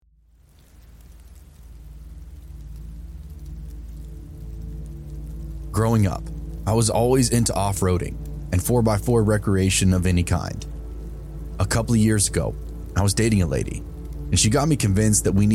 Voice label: deep woods horror stories with rain haunting ambience